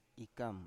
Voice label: "ikam", Biak

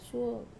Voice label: "suo", nose, Wamesa

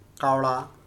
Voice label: "crow", Korku

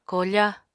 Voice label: "koofa", Apatani

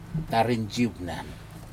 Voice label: tarin jipnan, very strong, Aneityum

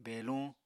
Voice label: "beluñ", Apatani

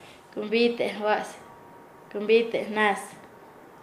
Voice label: "divulgar", Nasa Yuwe; paez

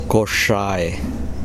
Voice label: kosrae, Kosraean

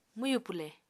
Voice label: "mwyù pwle", Apatani

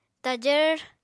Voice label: "tajvr", Apatani

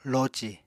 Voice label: "loci", Apatani

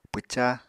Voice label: "pwca", Apatani

